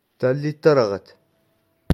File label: "Talit Araghat", Touareg; Mars